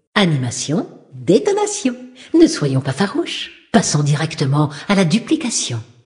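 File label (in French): Female Flirt, voix françaises; Sacrenuit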